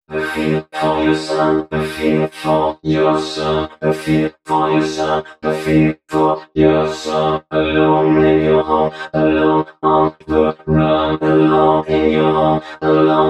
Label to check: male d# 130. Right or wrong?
Right